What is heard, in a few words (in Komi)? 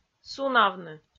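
"сунавны", Komi, female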